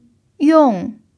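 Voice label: "yong4", Mandarin pinyin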